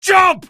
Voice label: jump, male; video games; npc; source engine; episodic; episode 1